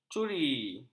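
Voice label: "curi", Malay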